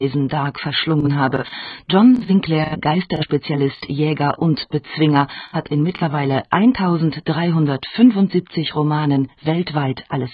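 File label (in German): drm sprache störungen; Sprache bei schlechtem Empfang mit 14.56 kBit/s; DRM-Empfängers für 3995 kHz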